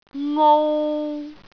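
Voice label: "ngou3", Cantonese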